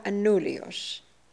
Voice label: Anulios, Beelzebub pronounciations; Fourth Way